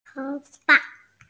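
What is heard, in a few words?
"tou2fa5", Mandarin Chinese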